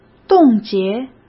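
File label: "冻结", Mandarin Chinese; hsk; chinese-flashcards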